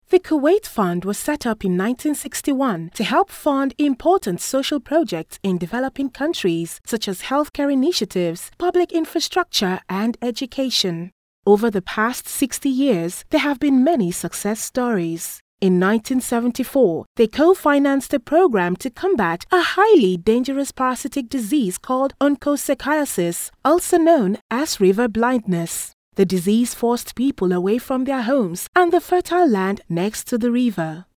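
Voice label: English African Female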